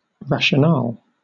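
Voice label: "rationale", British English; pronunciations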